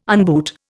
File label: "unboot", Indian